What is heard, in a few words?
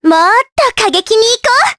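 Vox Skill, Japanese; Cleo; kingsraid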